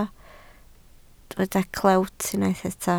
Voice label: "clewt", Welsh